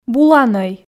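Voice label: "буланӧй", Komi, female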